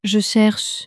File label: "je cherche", French